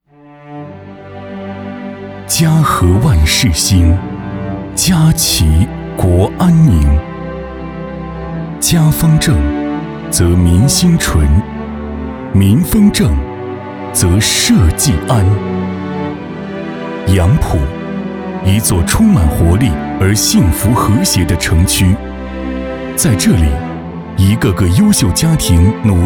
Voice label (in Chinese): 男 专题 家和万事兴